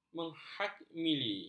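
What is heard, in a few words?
"menghamili", Malay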